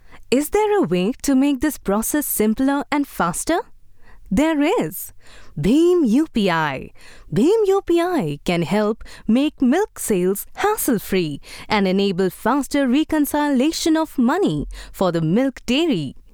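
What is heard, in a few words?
English, female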